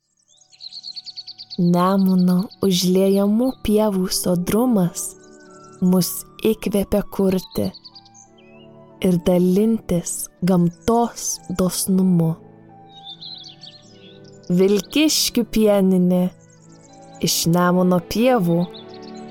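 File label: Lithuanian, Milk Commercial; GoLocalise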